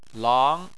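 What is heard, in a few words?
"lang", Chinese, second tone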